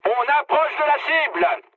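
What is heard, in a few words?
near waypoint, mood high, French; War Thunder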